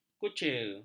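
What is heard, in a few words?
"kucir", Malay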